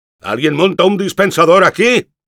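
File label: Engineer need teleporter, Spanish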